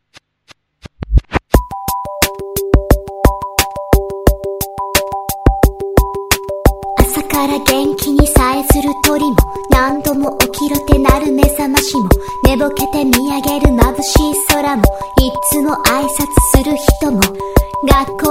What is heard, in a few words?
japanese say